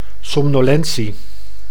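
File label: "somnolentie", Dutch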